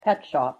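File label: pet shop, English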